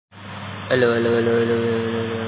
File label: Voice Note